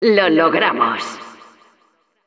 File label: Announcer we succeeded, es